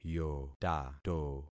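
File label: "yotato", concatenated syllables; Affixing experiment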